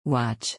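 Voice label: "watch", English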